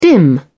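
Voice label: "dim", British English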